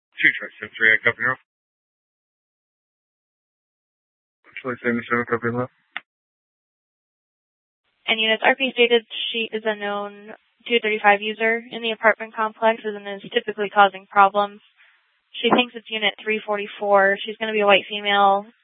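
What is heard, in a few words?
Mesa Police Department Superstition Dispatch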